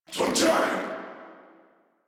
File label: Surprised Sniper, en